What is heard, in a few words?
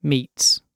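"meets", British English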